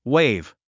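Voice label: "wave", English; wéiv ウェイブ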